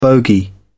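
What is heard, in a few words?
"bogey", British English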